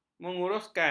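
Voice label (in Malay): "menguruskan", Malay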